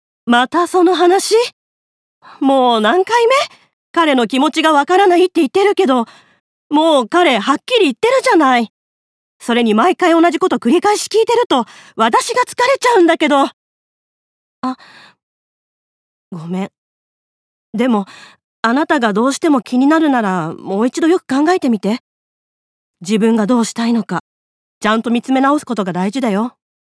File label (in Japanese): 苛立ち30代